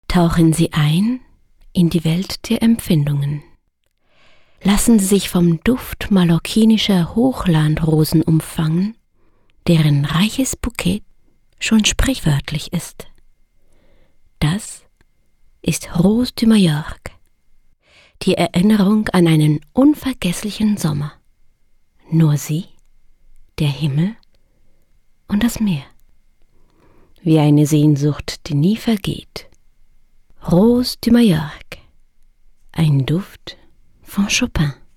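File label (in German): Radiowerbung deutsch mit französischem Akzent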